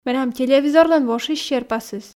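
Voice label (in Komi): менам телевизорлӧн вошис серпасыс, Komi, female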